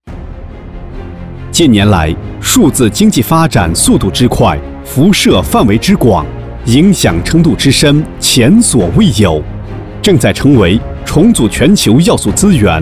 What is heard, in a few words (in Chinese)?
C男, 宣传片; 数字贷发布会